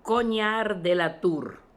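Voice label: "Cognar de la Tour", pronunciations; RUS; Fourth Way; Beelzebub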